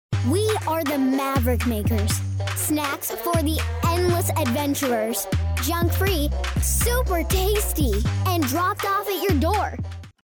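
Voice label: American English, child; voice over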